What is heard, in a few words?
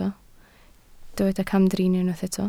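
"camdrin" Welsh, spoken word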